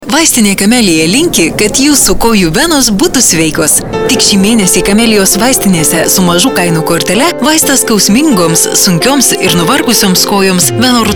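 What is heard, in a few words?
lithuanian female commercial; voice demos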